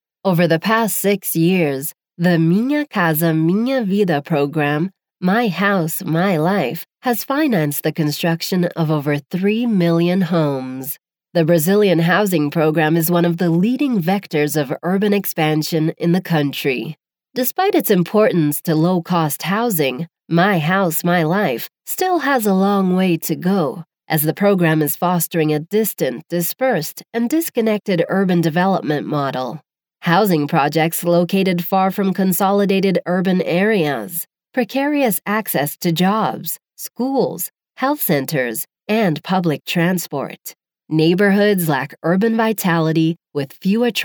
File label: Corporate Explainer